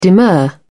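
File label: "demur", British English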